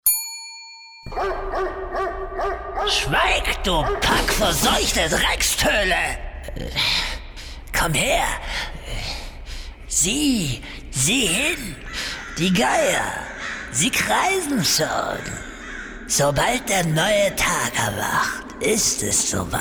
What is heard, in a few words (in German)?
Synchron Bösewicht Alter boshafter knochiger Druide